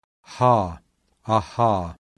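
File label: voiceless glottal fricative; IPA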